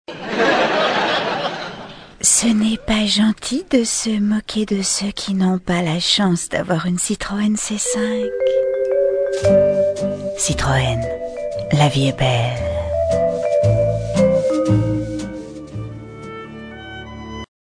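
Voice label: Young and Sexy, Commercials, French; Citröen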